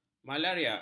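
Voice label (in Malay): "malaria", Malay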